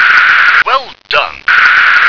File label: "well done", English